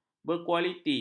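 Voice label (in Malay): "berkualiti", Malay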